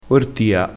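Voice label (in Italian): "ortia", dialettale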